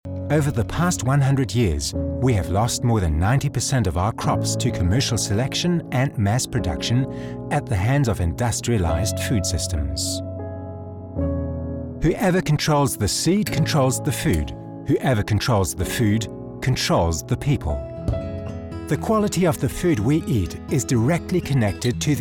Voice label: Documentary english